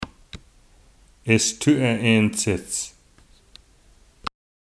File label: "My Daughter You Eat", Tahltan